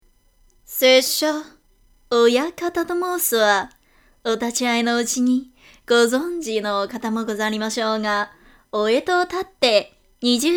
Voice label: 日文干音外郎売り

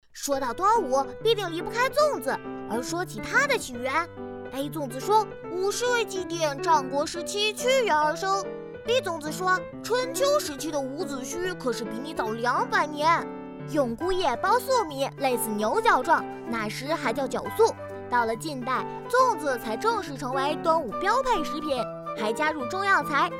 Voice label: 男童讲述类

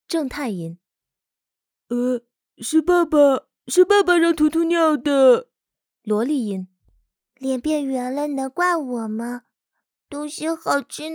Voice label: 女声各种声线

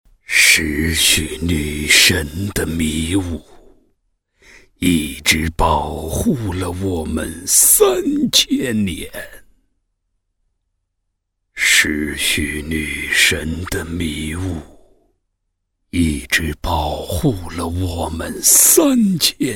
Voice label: 人物恐怖老人

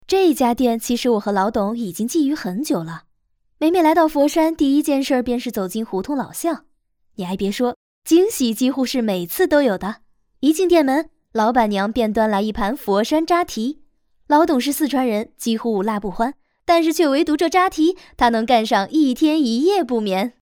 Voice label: 翻译配音

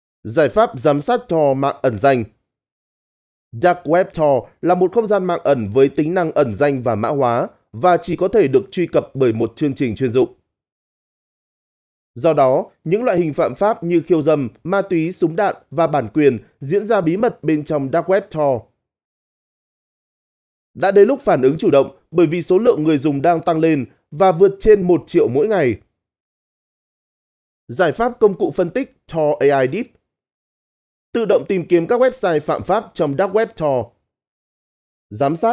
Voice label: 越南语男自然讲述干音

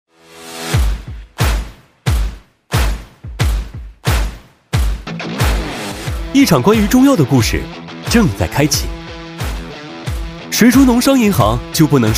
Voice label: 宣传片年轻活力; 农商银行

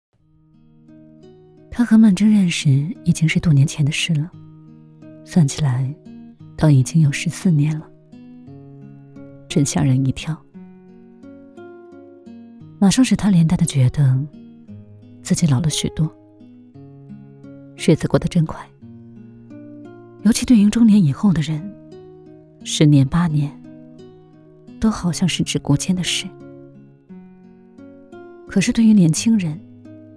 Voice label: 冷淡系

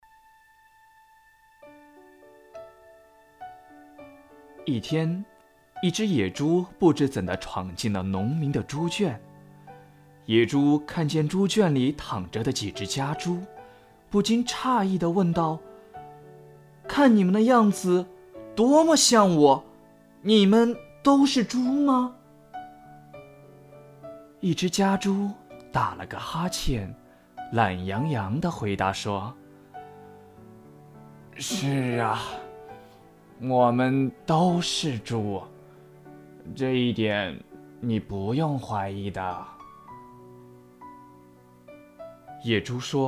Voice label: 小故事, 男, 青年（18-35）, 普通话, 有声读物

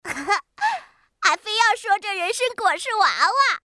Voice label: 仙童女孩角色

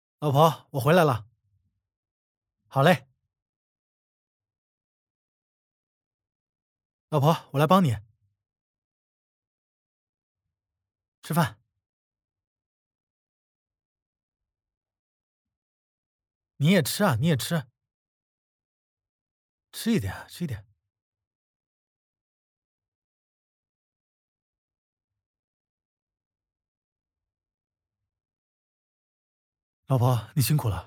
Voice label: 男子

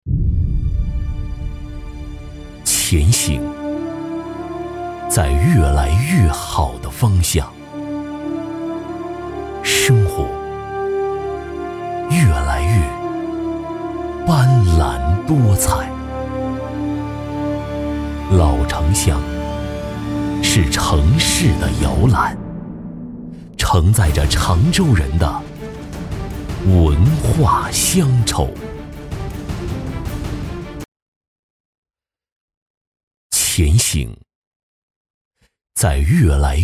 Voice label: 娓娓道来

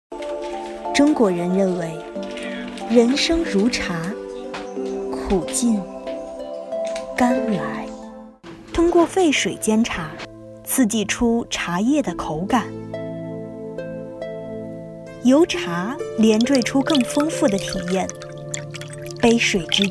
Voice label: 纪录片; 茶人; 茶人纪录片样音

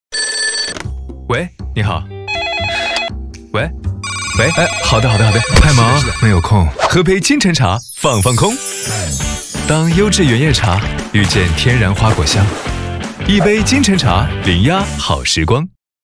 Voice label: 高端 男 活泼 年轻; 今晨茶

